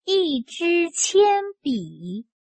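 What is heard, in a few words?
"一支铅笔", Chinese; 幼儿汉语; 语文园地二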